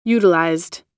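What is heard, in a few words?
"utilized", American English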